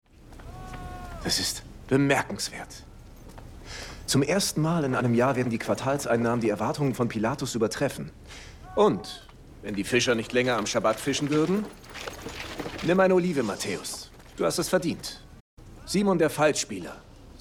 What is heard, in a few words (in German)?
History Synchron